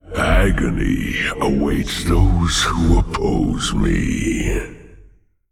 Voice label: Male Old God Bark; YShaarj